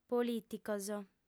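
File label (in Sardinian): "polìticos", female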